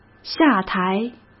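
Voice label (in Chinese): "下台", Mandarin Chinese; hsk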